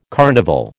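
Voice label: "carnival", American English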